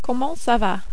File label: "comment ca va", French